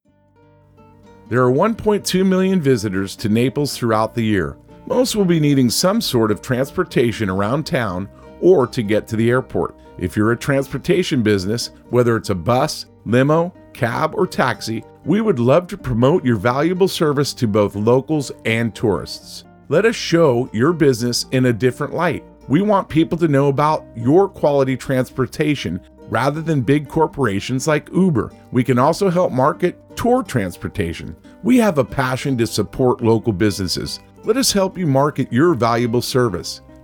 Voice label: voice with music; transportation